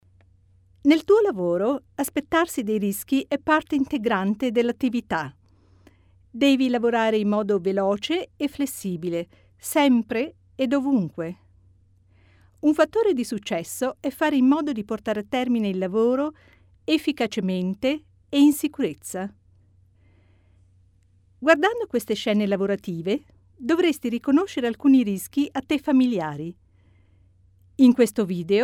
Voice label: italian

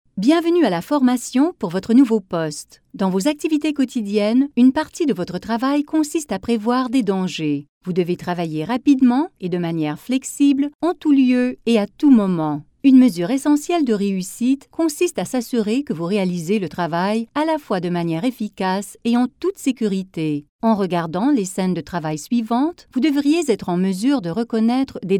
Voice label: Euro French

